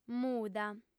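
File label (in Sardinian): "muda", female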